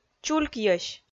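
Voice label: "чулькйӧсь", Komi-Zyrian, female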